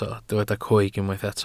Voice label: "coeg", Welsh